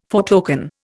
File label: "foretoken", Indian